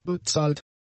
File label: "bezahlt", German; adjectives